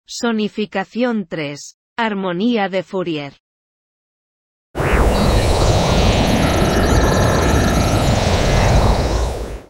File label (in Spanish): sonification poster basic audio description